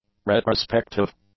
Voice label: "retrospective", English; adjectives